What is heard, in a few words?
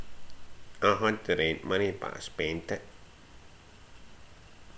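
"hud", slang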